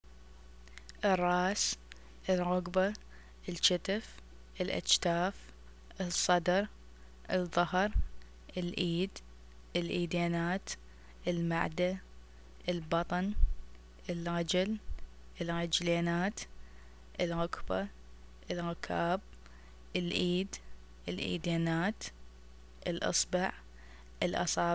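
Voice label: body parts, arabic, Iraqi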